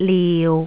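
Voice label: "liu6", Jyutping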